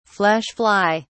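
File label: "flesh fly", British English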